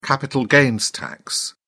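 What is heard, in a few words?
"capital gains tax", British English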